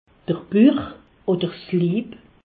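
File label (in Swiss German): "buch", Alsatian; medecine; abdomen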